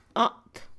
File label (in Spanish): piojo, Mixe, Mujer; Animales; INALI